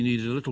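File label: "neeDeD a liTTle", Standard Southern British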